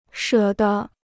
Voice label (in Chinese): "舍得", Chinese